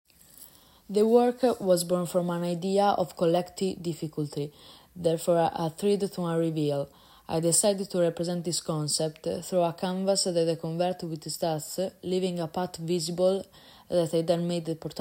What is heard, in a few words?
inglese; Premio scuole secondarie superiori; Premio Eleanor